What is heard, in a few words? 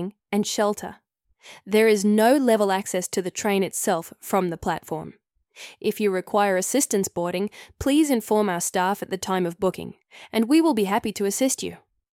Cardwell Railway Station, Station map audio description; TRAX; Overview Address Bowen Street